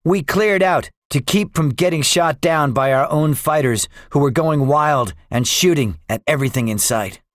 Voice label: debriefing, english; guadalcanal; War Thunder